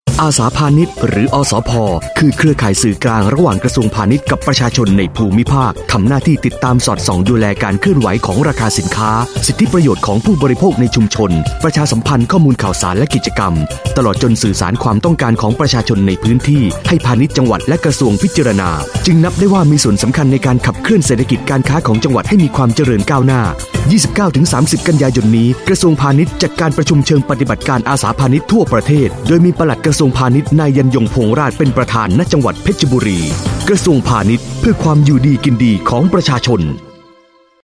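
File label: Thai Narration Male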